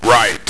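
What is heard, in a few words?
"right", male radio voice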